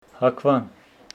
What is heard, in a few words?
Homshetsi morning